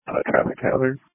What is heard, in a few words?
Sheriff Dispatch